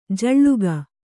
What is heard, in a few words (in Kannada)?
"ಝುಳುಕು", Kannada